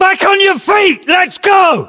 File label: voiceovers UK; ModernWarfare; localized english; Cargoship